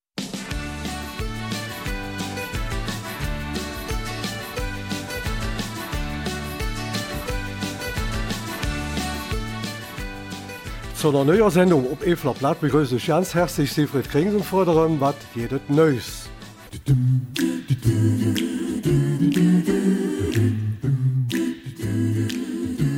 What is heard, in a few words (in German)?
Eifeler Mundart; Passivhaushandwerker